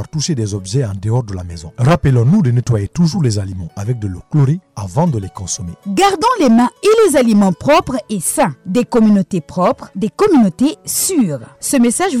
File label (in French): spot Zero Ebola Francais